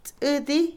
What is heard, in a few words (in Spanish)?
cerdo, puerco, Otomí, mujer; Animales; INALI